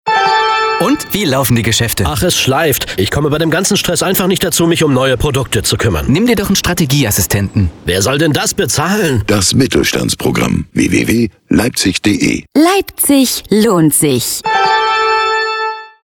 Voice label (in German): funkspot; reichelt